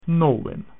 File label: "nine", Latin